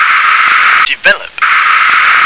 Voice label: "develope", English